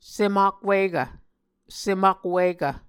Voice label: "sem-akwikv", Muscogee